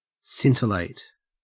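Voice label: "scintillate", Australian English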